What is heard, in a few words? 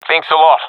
thankful, professional epic; vo; Counter Strike Model Pack; CS:GO